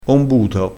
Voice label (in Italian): "ombuto", dialettale; vocabolario dialettale